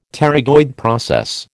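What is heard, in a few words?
"pterygoid process", American English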